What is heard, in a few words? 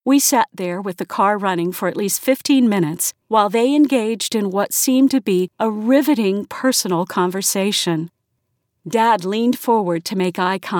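English US F Audiobook, female, American; voice over; Audiobook2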